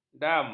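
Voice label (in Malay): "dam", Malay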